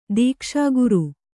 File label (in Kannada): "ದೀಕ್ಷಾಗುರು", Kannada